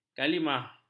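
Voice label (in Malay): "kalimah", Malay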